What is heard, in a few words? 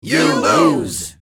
Crowd shouts you lose; game over